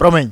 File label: sorry, male